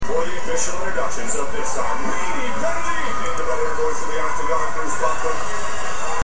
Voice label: robot